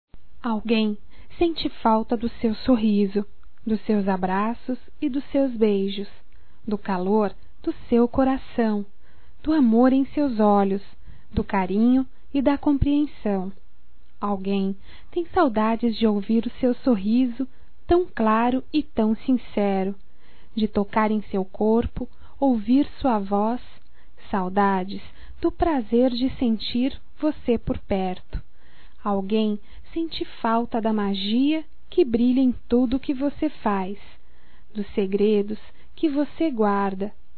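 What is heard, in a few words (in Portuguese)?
ROMANTICA, female, Telemensagens, Só Voz; Telemensagens 2017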